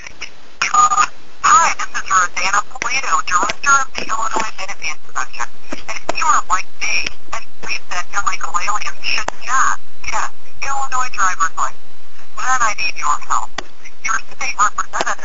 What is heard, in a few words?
robocall